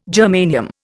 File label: "germanium", Indian